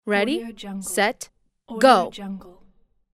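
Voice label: "ready set go", voice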